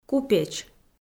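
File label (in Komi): "купеч", Komi-Zyrian, female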